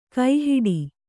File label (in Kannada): "ಕೈಹಿಡಿ", kannada